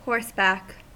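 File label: "horseback", US English; pronunciations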